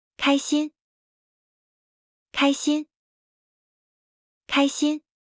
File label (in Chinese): "開心", Mandarin; Traditional Chinese